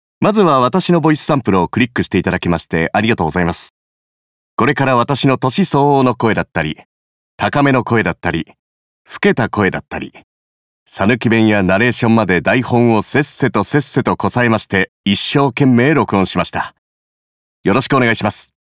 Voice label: フリートーク, 讃岐弁

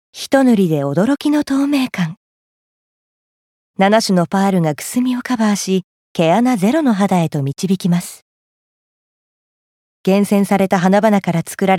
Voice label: コスメCM

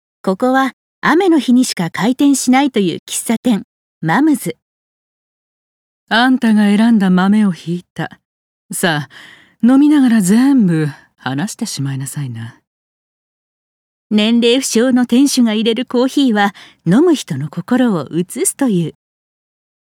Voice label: 単行本CM

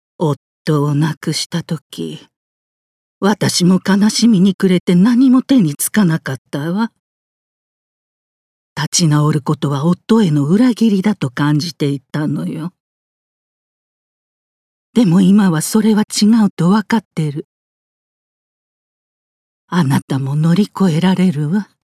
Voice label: 70代

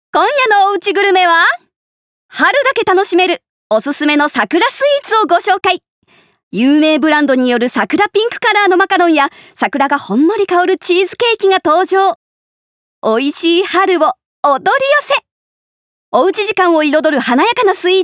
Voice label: グルメ番組ナレーション明るめ

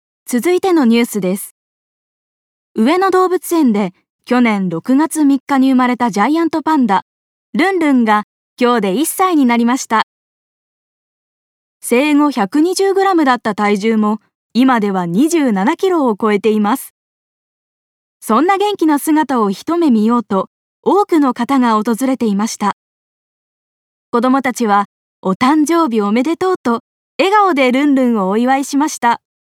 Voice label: ニュース

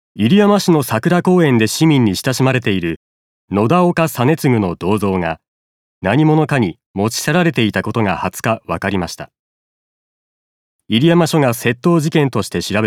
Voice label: ニュース

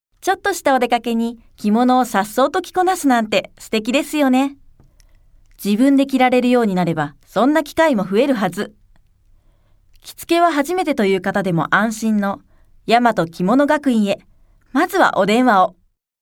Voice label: スクールCM

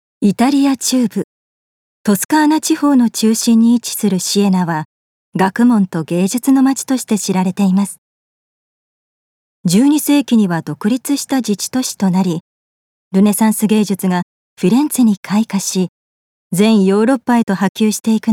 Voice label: 紀行ナレ

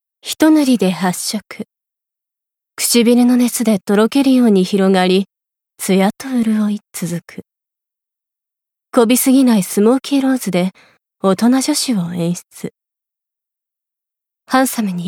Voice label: コスメCM しっとり

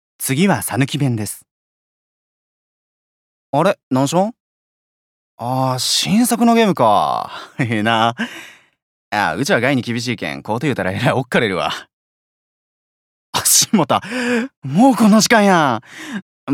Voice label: 方言

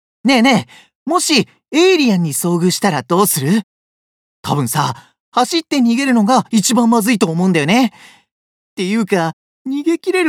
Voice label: 臆病20代

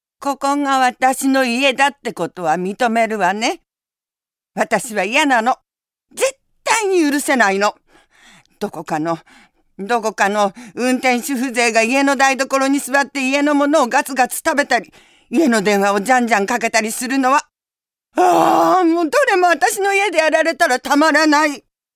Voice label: 怒り

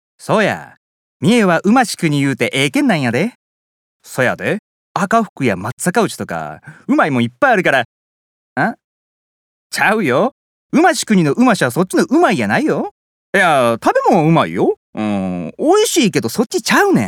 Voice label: 伊勢弁; 方言

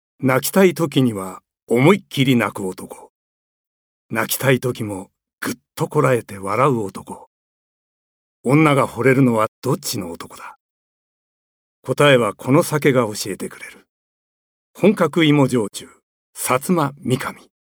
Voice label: アルコールCM

